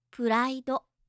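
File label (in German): "puraido", japanische Mädchennamen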